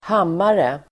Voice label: "hammare", Swedish